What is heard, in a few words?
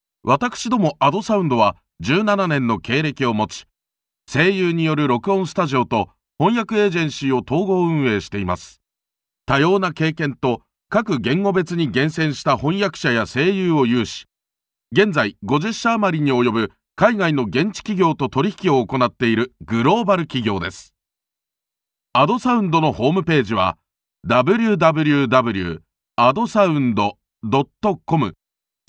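Japanese, male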